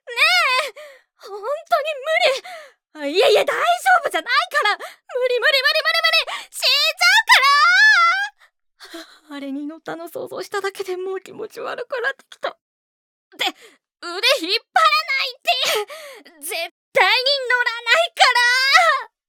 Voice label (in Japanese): 17歳女の子